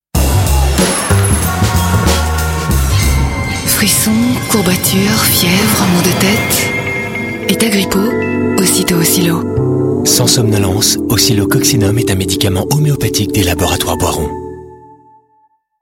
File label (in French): tv voix soufflee chaleureuse; oscilloccinum